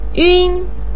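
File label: "yun1", Mandarin Chinese pinyin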